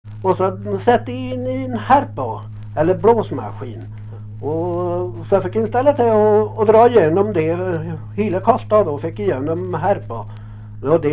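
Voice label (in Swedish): Lerdal Dalsland, dialekter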